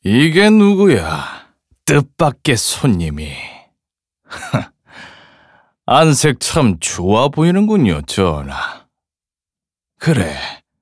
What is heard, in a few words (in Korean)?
30대 여유있는 건방진